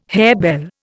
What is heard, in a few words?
"harebell", Indian